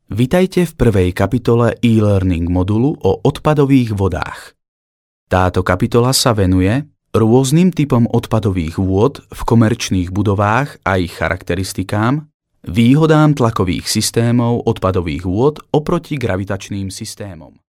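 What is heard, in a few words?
Slovak, male, narration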